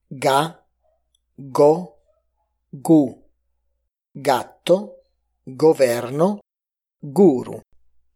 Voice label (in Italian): fonetica suoni ga go gu